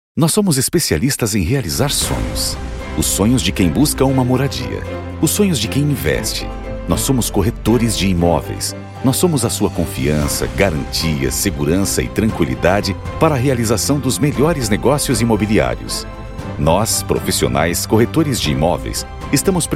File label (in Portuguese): spot radio campanha institucional; creci sc